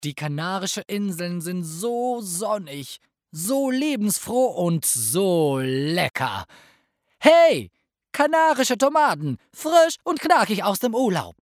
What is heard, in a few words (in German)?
Werbung